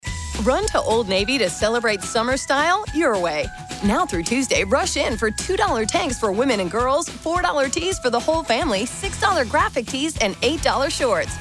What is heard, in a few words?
Announcer; Old Navy